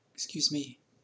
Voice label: "excuse me" mild